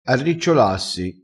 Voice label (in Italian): "arricciolassi", crespinese